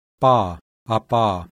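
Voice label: voiceless bilabial plosive; IPA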